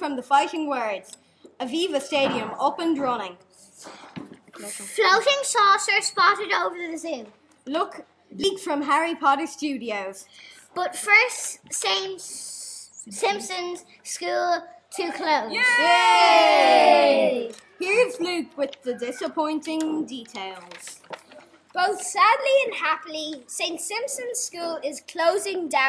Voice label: news bulletin